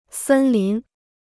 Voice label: "森林", Chinese

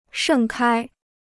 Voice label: "盛开", Chinese

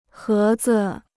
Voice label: "盒子", Chinese

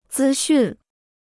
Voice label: "资讯", Chinese

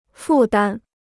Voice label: "负担", Chinese; fù dān; burden

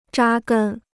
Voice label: "扎根", Chinese

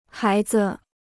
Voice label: "孩子", Chinese

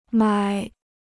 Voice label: "买", Chinese

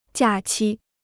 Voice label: "假期", Chinese

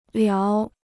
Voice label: "聊", Chinese

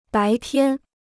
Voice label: "白天", Chinese

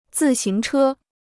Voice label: "自行车", Chinese

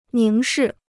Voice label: "凝视", Chinese

